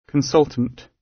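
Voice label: "consultant", British English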